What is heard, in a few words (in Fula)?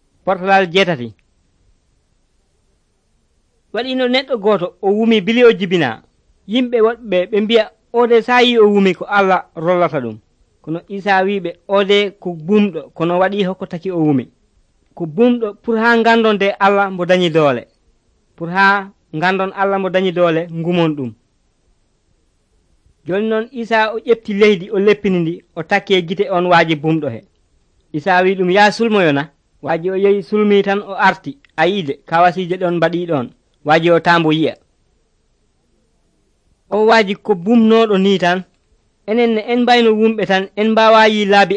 pulaar fulacunda, drama; pulaar bible society of senegal nt